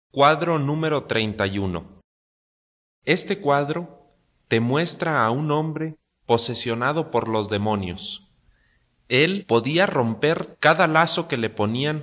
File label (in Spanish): good news spanish mexico